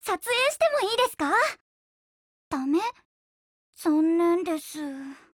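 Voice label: OPERATION BEGIN, Japanese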